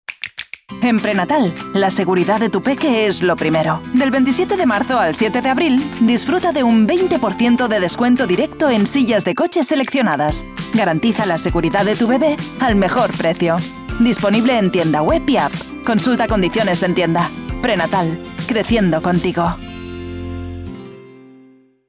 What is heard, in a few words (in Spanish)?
locucio ES HORARIOS; PRENATAL; ofertas